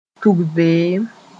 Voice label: "tug beem", moore

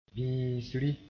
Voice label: "bĩisri", moore

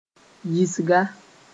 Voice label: "yisga", Mooré

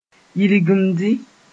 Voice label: "yilgimdi", Mooré

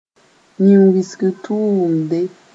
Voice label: "yĩn-wɩsgr tʋʋmde", Mooré

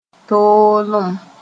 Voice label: "toolem", Mooré; strictness

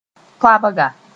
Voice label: "toabga", moore

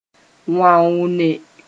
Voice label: "wãoone", moore

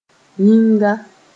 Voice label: "yĩnga", Mooré

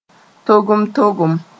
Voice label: "togem-togem", Mooré

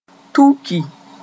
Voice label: "tuki", moore

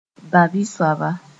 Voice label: "bã-bi soaba", Mooré; sickly

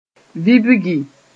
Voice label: "vibgi", Mooré

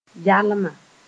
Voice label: "yalma", Moore

